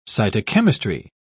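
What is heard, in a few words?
"cytochemistry", British English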